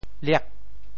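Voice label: "liak8", Teochew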